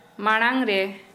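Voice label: "front", Santali, female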